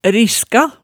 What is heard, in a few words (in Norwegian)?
"riska", Hemsedal